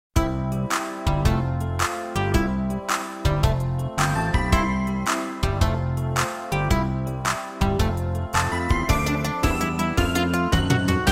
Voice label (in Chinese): 國語一年級錄音檔